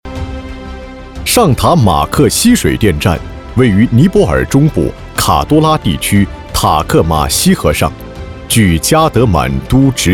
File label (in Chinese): 男 工程解说; 上塔项目视频解说词